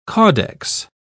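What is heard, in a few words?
"caudex", British English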